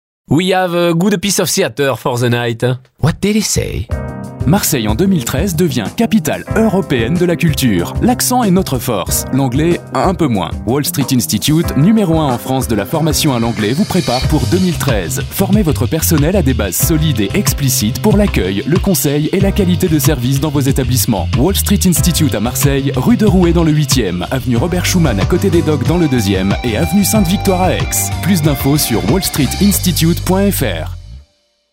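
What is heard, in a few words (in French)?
spot, spots radio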